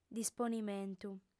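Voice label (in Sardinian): "disponimentu", female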